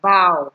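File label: "bow", Nigerian Pidgin, female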